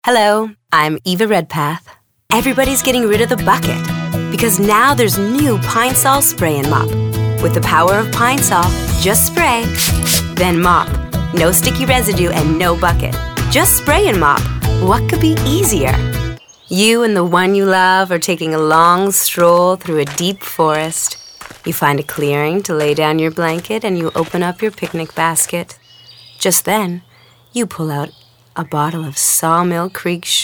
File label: commercial female